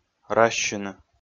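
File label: "разьсьыны", Komi, male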